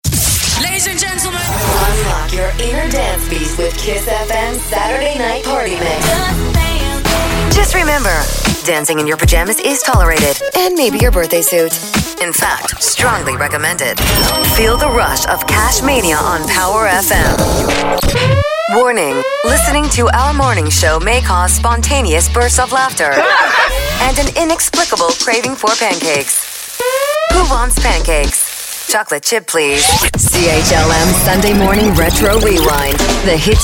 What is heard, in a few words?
Radio Imaging